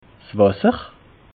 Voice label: "wasser", alsace

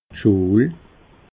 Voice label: "schuel", alsace

